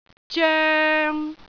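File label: "zoeng3", Cantonese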